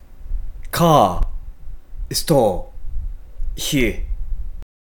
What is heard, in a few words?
R Sound